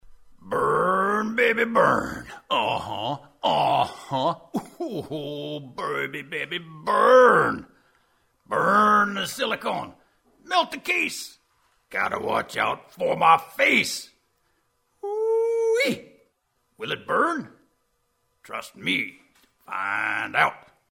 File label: Gruff character